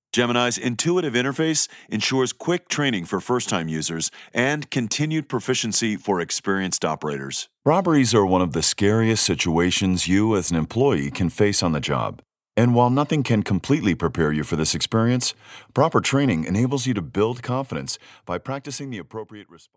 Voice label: English USA